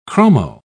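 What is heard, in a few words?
"chromo", British English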